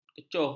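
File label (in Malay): "kecoh", Malay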